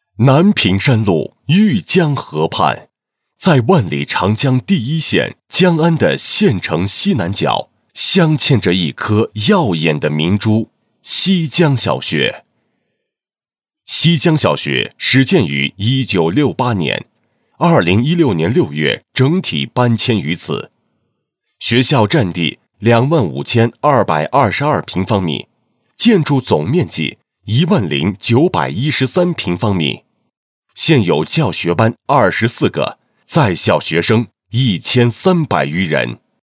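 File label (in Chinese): 男 学校介绍 奋进和崛起中的小学校宣传配音; 西江小学校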